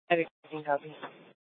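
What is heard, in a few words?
police dispatch, scanner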